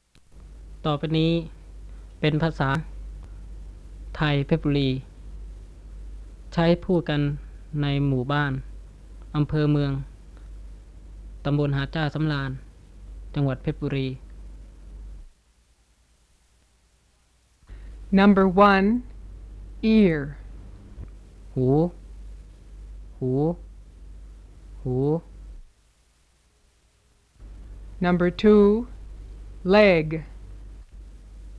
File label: THAI Petburi Tones of Tai Dialects